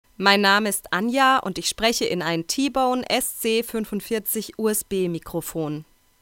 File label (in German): t.bone sc450 usb stimme f